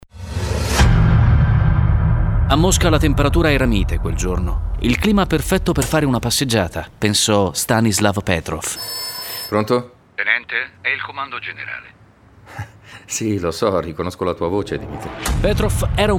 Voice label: trailer; petrov